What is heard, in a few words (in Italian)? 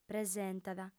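"presentat", lingua sarda, fem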